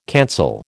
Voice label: "cancel", American

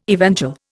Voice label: "evangel", Indian

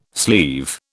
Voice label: "sleeve", British

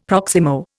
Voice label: "proximo", Indian; Dictionary

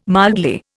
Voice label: "mildly", Indian

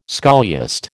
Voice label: "scholiast", American

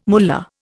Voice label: "mullah", Indian

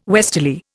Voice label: "westerly", Indian

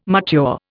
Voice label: "mature", Indian

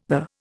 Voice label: "the", Indian

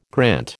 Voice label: "grant", American

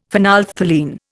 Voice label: "phenolphthalein", Indian; Dictionary

